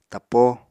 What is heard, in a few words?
"tappo", apatani